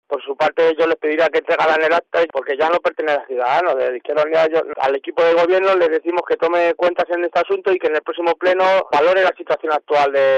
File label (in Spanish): noticias